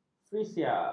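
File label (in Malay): "freesia", Malay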